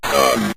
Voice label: "sun", synth voice; Synth Voice 2; Kenney's Sound Pack